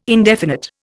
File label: "indefinite", Indian